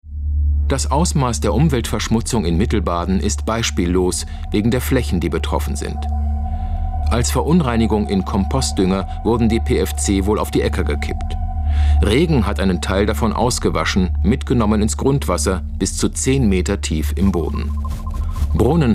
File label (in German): Erzaehler; Feature; Brennpunkt; DLF